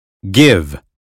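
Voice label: "give", American phonetics; 英语音标发音（剑桥版）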